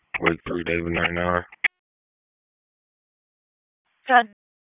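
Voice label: Sheriff's Office Dispatch; WSO DISP 3; wake; p25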